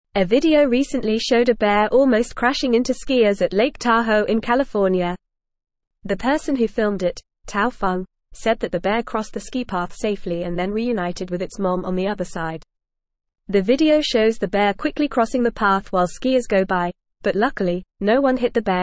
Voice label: English Newsroom Upper Intermediate FAST Reading; Skier narrowly avoids bear collision in Lake Tahoe